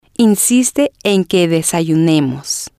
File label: insiste en que desayunemos, Spanish